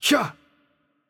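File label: Arabic attack light voice; bloom minigame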